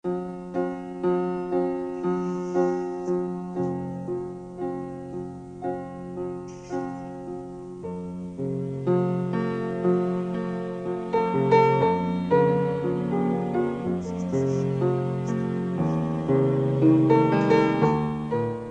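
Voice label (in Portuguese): gestante feminina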